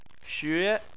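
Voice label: "xue", Chinese, second tone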